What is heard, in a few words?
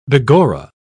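"begorra", en, uk British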